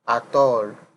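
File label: "at all", Nigerian Pidgin, male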